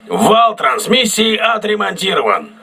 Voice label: voice message transmission repaired, crew ships russian